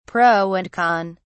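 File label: "pro and con", British English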